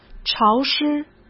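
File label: "潮湿", Mandarin Chinese; hsk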